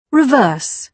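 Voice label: "reverse", British English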